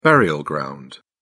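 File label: "burial ground", British English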